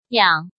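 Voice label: "Yǎng", Chinese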